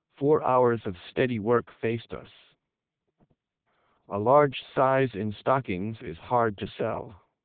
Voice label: melp, male; lpcnet codec